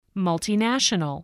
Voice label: "multinational", voice